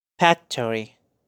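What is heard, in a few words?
"Factory", English; Lugares de una ciudad; places in a town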